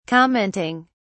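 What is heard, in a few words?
"commenting", English, British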